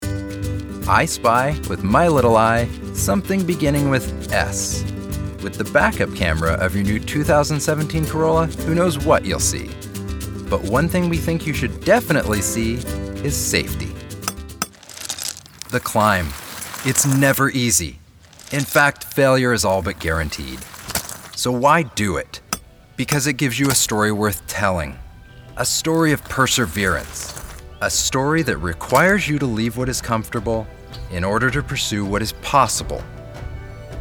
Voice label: commercial